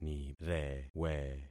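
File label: "nirewe", concatenated syllables; Affixing experiment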